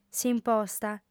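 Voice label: "s'imposta", Sardinian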